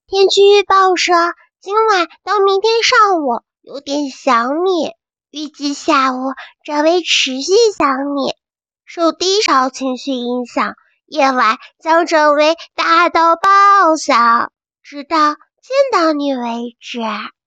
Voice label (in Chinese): 萝莉音